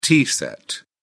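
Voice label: "tea set", British English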